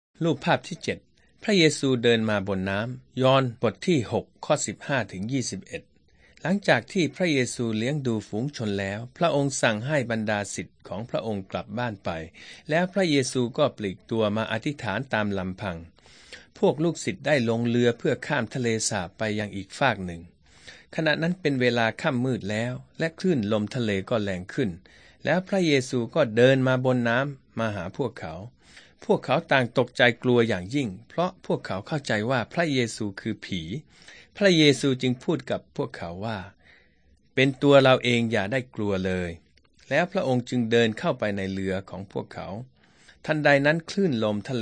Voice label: thai central